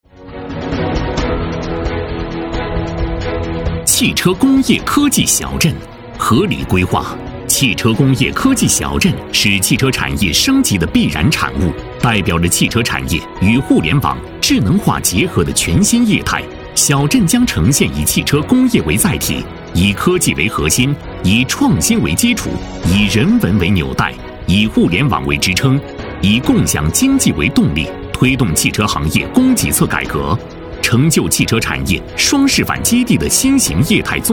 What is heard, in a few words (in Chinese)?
男 科技 汽车工业